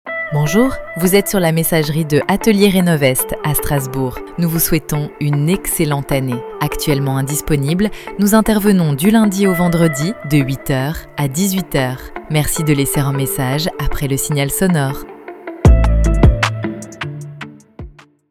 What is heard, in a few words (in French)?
exemple repondeur personnalise